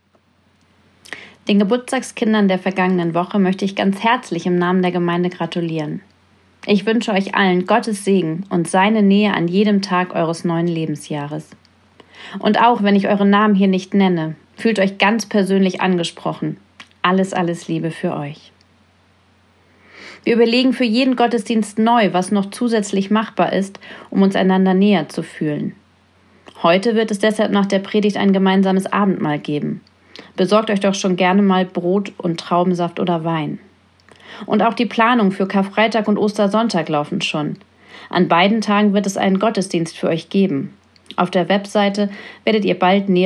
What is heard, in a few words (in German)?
Ansagen